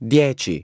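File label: "ten", English; iOS 4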